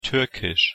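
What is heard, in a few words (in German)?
"türkisch", German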